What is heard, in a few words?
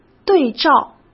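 "对照", Mandarin Chinese; chinese flashcards; hsk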